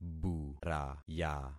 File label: "buraya", concat syllables; Affixing experiment